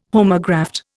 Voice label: "homograft", Indian